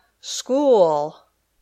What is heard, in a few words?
"school", English